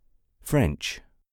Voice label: "french"